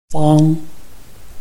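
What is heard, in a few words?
"fang", Chinese, tone 1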